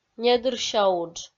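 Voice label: "недырся удж", Komi-Zyrian, female; kpv audio dict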